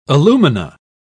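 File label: "alumina", British English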